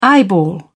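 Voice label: "eyeball", English, British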